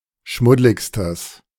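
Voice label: "schmuddligstes", German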